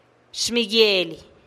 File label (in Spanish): "xmiguielih" san miguel del valle, teotitlan